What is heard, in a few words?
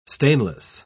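"stainless", British English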